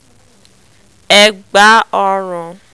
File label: "egba orun", Yoruba